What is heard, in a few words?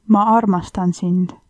i love you, estonian